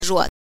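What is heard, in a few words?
"ruo", Mandarin Chinese, neutral tone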